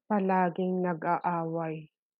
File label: Tagalog